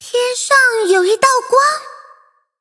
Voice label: upgrade, zh-hant; Cookie Run